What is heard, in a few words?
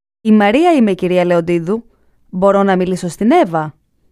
phone call female